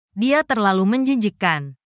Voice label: indonesian phrases